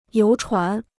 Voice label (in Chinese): "游船", Chinese